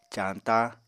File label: "cañta", Apatani